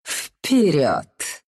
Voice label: move ru; Dota 2